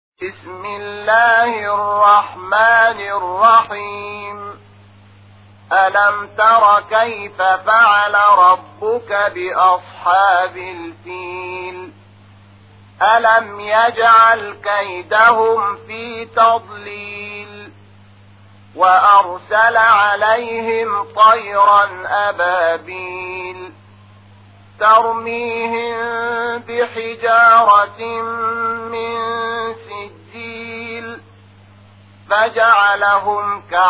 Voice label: ترتیل قرآن کریم سوره فیل; محمود شحات انور

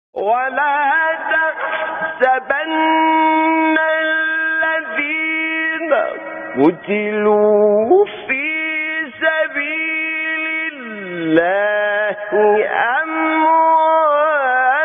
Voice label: تلاوت آیه 169 سوره آل عمران; حامد شاکرنژاد

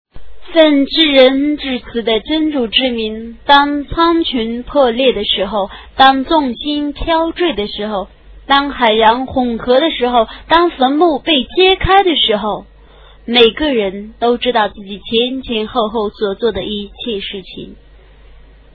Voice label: ترجمه گویای قرآن کریم به زبان چینی; سوره انفطار

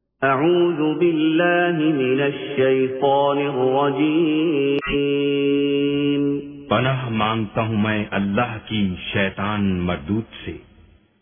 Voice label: ترجمه گویای قرآن کریم به زبان اردو; با تلاوت سدیس و شریم; سوره فاتحه

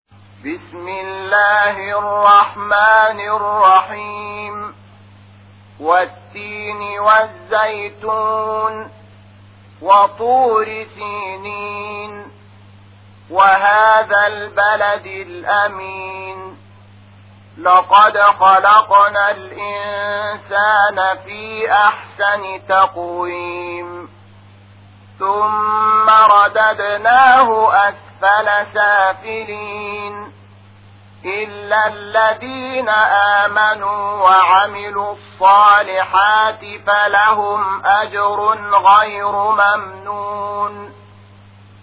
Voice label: ترتیل قرآن کریم سوره تین; محمود شحات انور

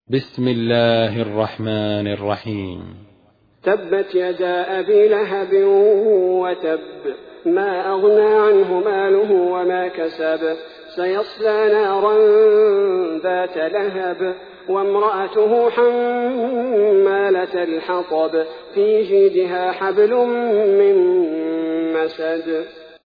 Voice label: ترتیل سوره مسد; الثبیتی

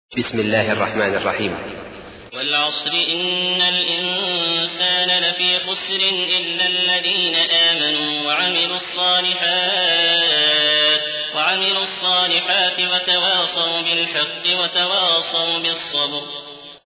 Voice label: ترتیل; عبدالعزیز الأحمد; سوره عصر

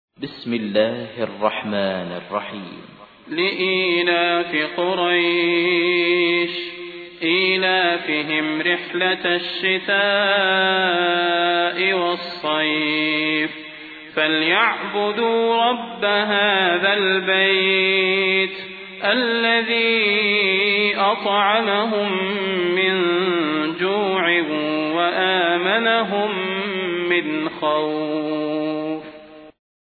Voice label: ترتیل سوره قریش; صلاح البدیر

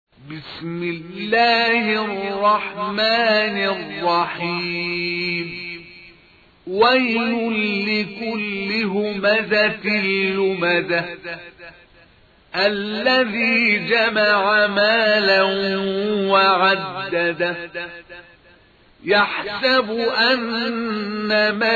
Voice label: ترتیل; عبدالباری محمد; سوره همزه

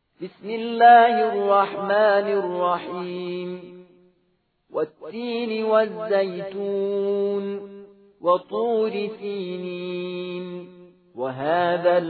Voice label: ترتیل; سوره تین

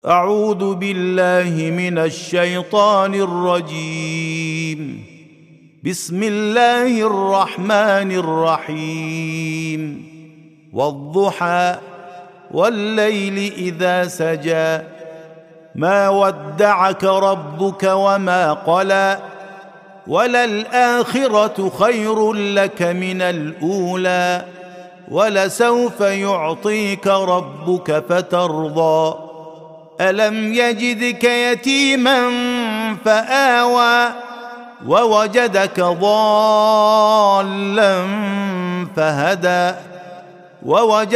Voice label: ترتیل سوره مبارکه ضحی

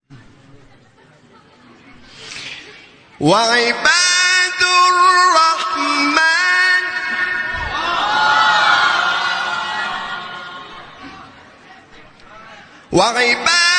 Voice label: تلاوت آیه 63 سوره فرقان; حامد شاکرنژاد

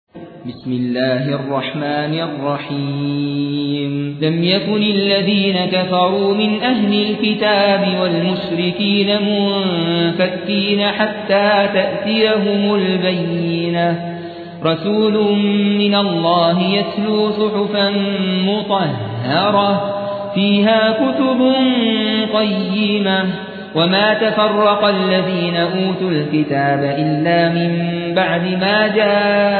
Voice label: ترتیل سوره بینه

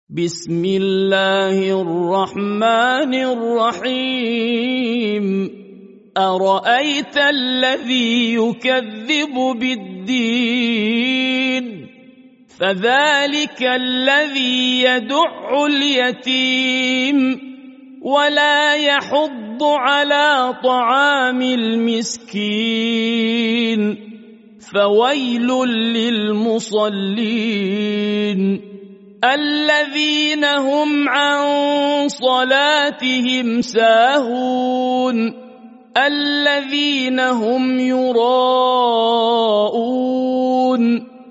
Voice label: تلاوت ترتیل سوره ماعون

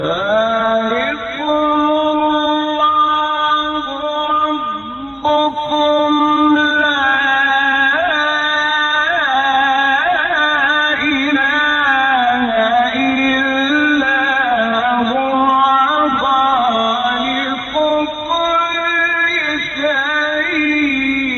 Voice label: فراز زیبا از تلاوت سوره انعام; استاد شحات انور

